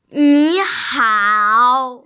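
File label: "ni hao", Mandarin Chinese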